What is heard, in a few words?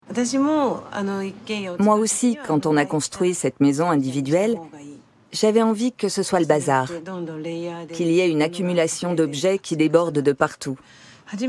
Voice over Doc; Il était une fois… Une affaire de famille